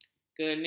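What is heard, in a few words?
"kenik", Malay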